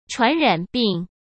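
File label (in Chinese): "传染病", Mandarin Chinese; hsk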